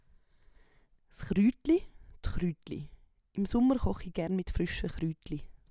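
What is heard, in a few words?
"s Chruetli", Swiss German vocabulary; Wortschatz Kapitel 4; Kapitel 4 Zusatzwortschatz 1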